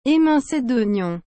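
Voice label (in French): "emince d ognon", French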